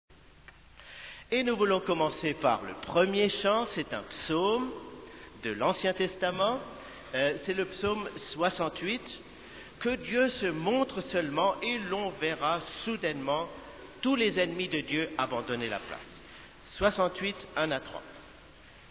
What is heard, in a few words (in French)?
Annonce chant psaume; Greffern; Culte